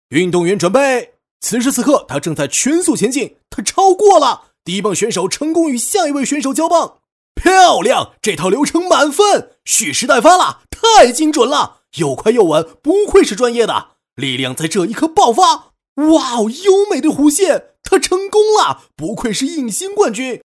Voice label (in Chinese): 男, 广告; 运动员预备